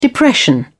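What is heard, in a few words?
"depression", English, British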